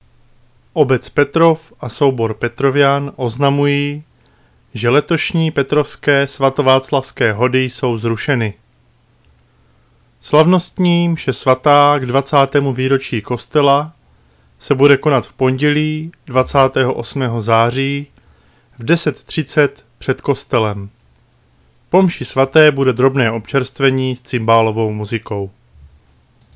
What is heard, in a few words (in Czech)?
Zrušené hody, rozhlas